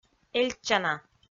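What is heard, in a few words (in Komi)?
"эльтчана", Komi, female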